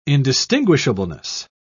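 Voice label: "indistinguishableness", British English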